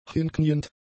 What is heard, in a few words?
"hinkniend", German; adjectives